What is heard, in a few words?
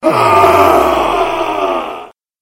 monster cursed guard captain